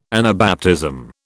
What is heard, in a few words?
"Anabaptism", British